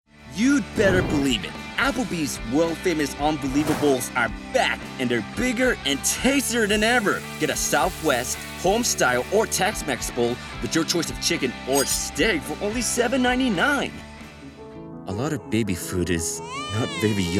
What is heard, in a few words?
Commercial